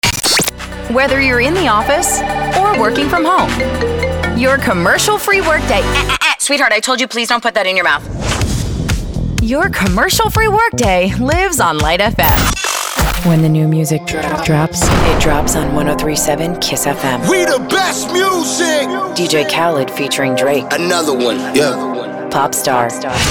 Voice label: radio women; radio imaging